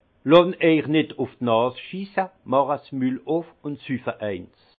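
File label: "lonnejchnit", Haut Rhin; Ne vous laissez pas chier sur le nez (marcher sur les pieds), ouvrez la bouche et buvez un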